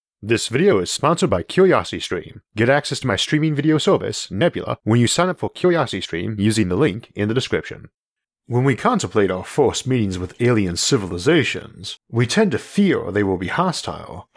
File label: annoying aliens narration only; isaac arthur